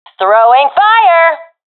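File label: throwing fire, professional female; vo; agents; Source Model Packs; Counter Strike Model Pack; CS2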